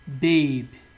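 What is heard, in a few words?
"babe", Nigerian Pidgin, male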